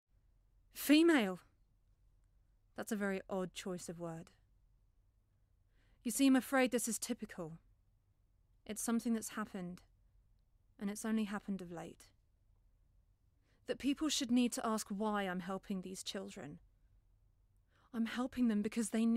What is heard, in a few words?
Voice Over; Skylight; Kyra; David Hare